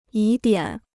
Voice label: "疑点", Chinese

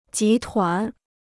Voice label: "集团", Chinese

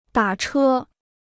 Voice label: "打车", Chinese

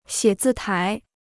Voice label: "写字台", Chinese

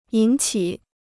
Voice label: "引起", Chinese

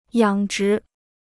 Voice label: "养殖", Chinese

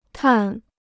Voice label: "碳", Chinese

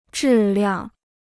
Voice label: "质量", Chinese

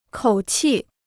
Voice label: "口气", Chinese

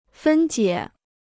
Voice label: "分解", Chinese

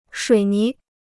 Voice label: "水泥", Chinese

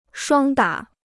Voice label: "双打", Chinese

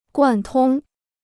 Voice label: "贯通", Chinese

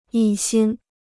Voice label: "一心", Chinese

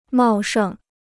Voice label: "茂盛", Chinese

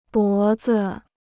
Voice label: "脖子", Chinese

